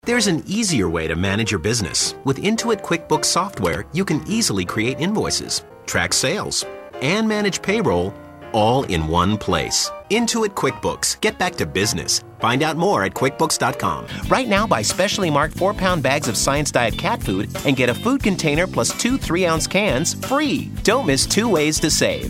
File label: Commercials